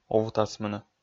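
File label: "увтасмыны", Komi, male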